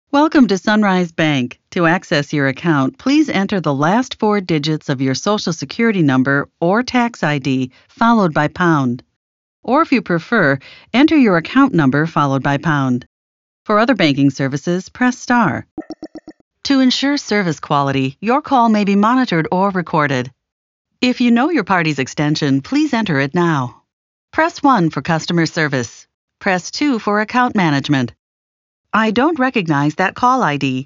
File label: ivr